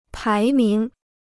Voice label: "排名", Chinese